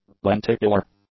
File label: "lenticular", english; adjectives